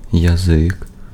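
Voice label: Ukrainian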